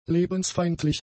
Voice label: "lebensfeindlich", German; adjectives

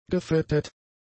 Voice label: "gefetet", German; adjectives

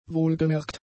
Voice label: "wohlgemerkt", German; adjectives

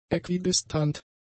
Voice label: "äquidistant", German; adjectives

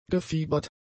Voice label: "gefiebert", German; adjectives

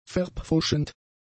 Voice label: "verpfuschend", german; adjectives

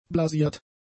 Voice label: "blasiert", German; adjectives

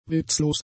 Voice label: "witzlos", German; adjectives

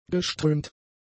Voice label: "geströmt", german; adjectives

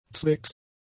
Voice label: "zwecks", German; prepositions

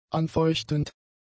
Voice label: "anfeuchtend", German; adjectives

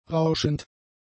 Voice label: "rauschend", German; adjectives

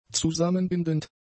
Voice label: "zusammenbindend", German; adjectives

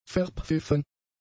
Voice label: "verpfiffen", german; adjectives

